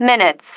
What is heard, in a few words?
"minutes", female